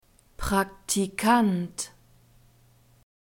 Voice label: "Praktikant", German; masculine